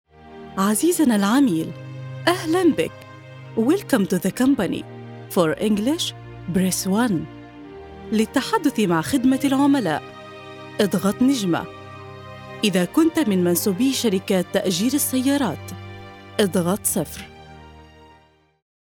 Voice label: arabic ivr